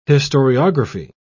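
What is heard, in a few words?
"historiography", British English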